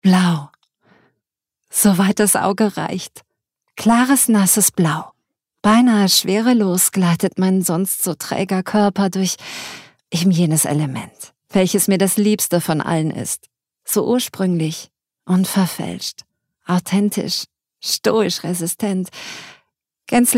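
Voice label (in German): Schauspiel, Sanft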